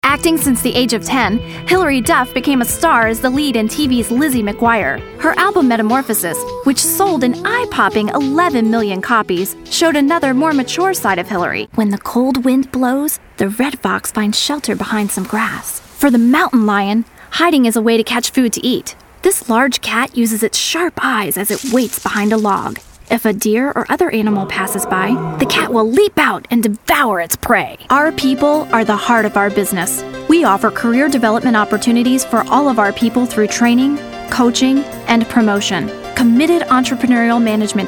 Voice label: women narration; The Hip Chick Voice - bright, young and fun.